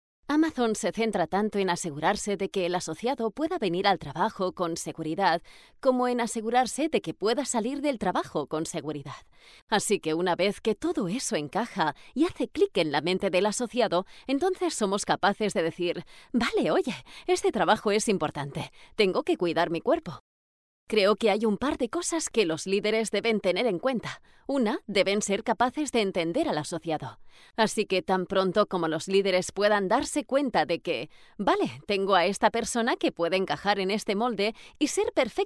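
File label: spanish elearning, Spanisch - Kastilisch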